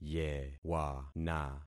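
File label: "yewana", concatenated syllables; Affixing experiment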